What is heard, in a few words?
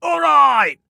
Woohoo, Male